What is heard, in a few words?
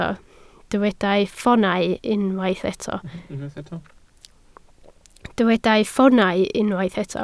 "ffoonau", Welsh